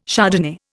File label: "Chardonnay", Indian